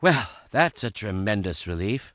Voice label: relieved; k lab; hl2

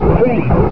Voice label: go alert, combine soldier, far; hl2

